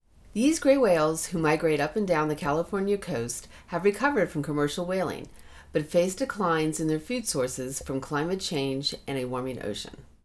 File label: Gray Whale Narration; Passive Acoustic Sensing; NOAA Sound project